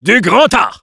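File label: positive vocalization, French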